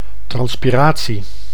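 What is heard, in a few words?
"transpiratie", Dutch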